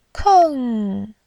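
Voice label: "keng4", Mandarin Chinese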